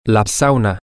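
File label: sauna, Spanish, male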